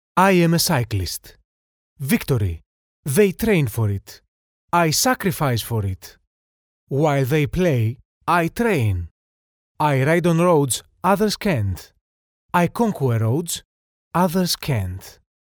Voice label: male greek voice over english